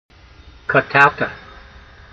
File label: "qatalta", hebrew language